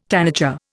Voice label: "tanager", Indian